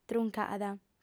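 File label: "truncada", Sardinian, female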